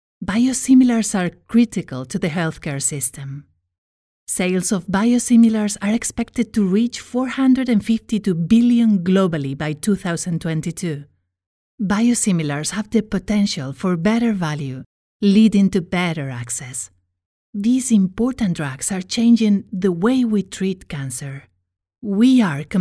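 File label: Corporate medical empathetic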